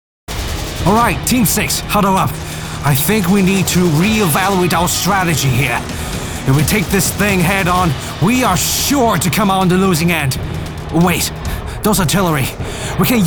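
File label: British English Character; GoLocalise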